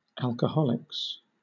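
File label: "alcoholics" British English; pronunciations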